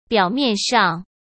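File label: "表面上", Mandarin Chinese; chinese-flashcards; hsk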